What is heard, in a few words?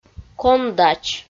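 "кондач", Komi, female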